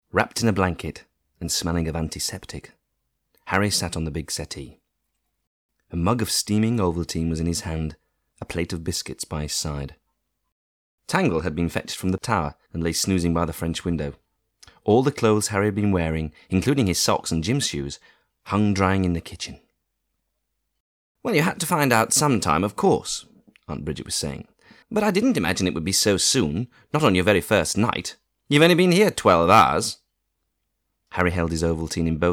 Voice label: UK Voice Narration